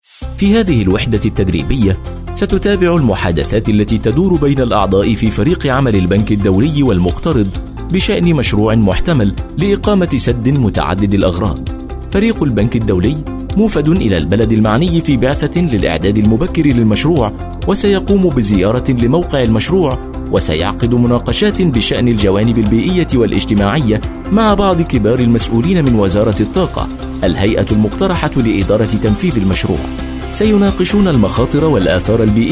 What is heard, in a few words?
arabic voice over male narrator